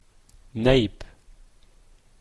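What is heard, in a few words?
"nape", Canadian English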